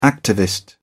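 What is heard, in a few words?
"activist", English, British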